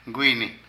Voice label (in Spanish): Dedo, Zapoteco de Petapa; Cuerpo; Vocabulary